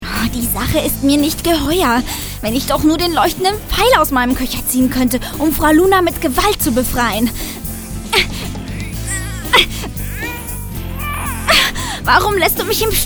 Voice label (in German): Hoerbuch; LOFT VOICES